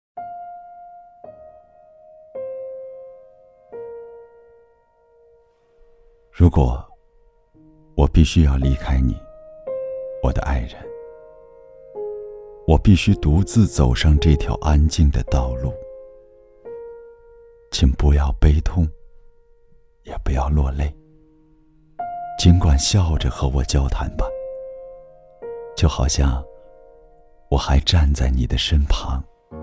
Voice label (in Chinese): 其他 朗诵 诗歌, 男; 给那些我爱的人